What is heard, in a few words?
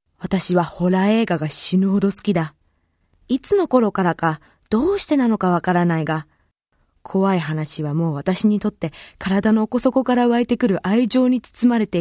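Japanese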